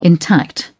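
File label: "intact", British English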